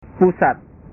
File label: "pusat", bahasa